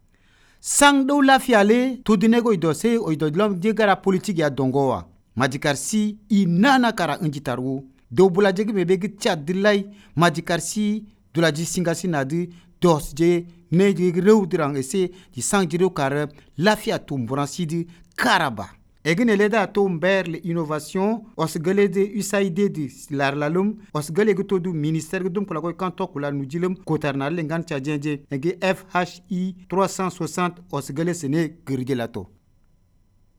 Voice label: Ngambai Message